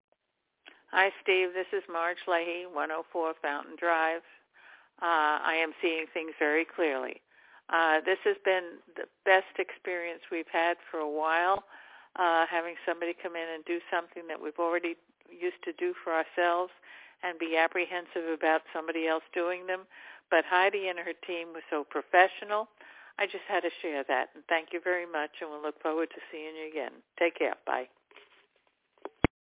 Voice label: window washing voicemail